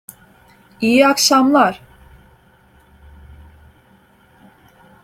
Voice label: "iyi akşamlar", Turkish

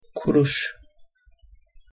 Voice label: "kuruş", Turkish

